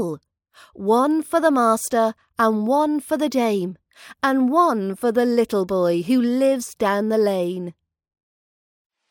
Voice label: baa baa black sheep nursery rhyme British accent practice